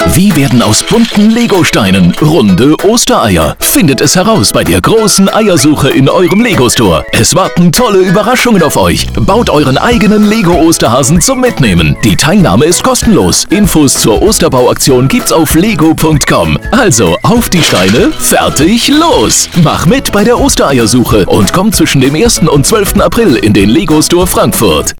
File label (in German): Werbung Kinder